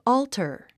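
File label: "alter", English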